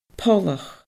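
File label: "pollach", Scottish Gaelic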